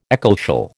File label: "ecclesial", American